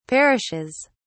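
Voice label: "perishes", British English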